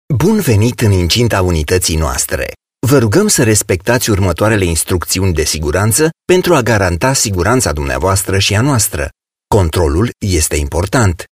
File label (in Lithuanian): (VYR) Rumunu balsas; vyras